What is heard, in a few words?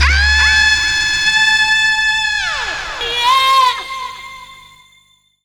Calm Vox; Jungle; Vocals; Blu Mar Ten - Jungle Jungle - 1989 to 1999 Samplepack